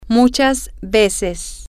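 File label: "many times", Spanish, female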